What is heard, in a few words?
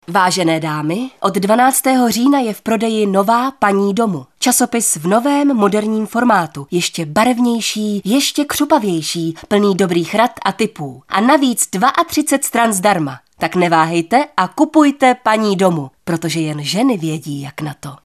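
female Czech commercial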